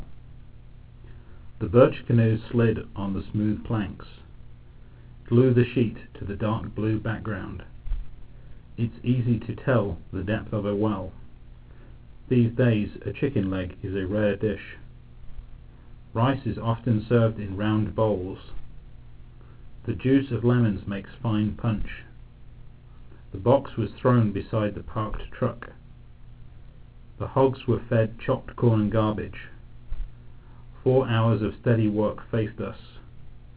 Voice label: echo